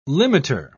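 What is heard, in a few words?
"limiter", British English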